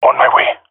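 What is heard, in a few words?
on my way, jungle male epic; omw; vo; agents; Source Model Packs; Counter Strike Model Pack; CS2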